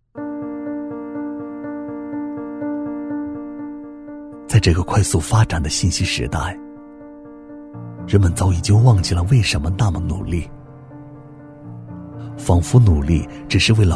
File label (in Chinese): 男 旁白 低沉走心; 硬朗力度 质感磁性; 为什么努力